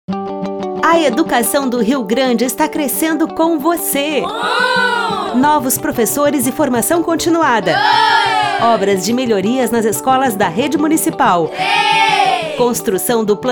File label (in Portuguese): Spot; Prefeitura do Rio Grande; Educação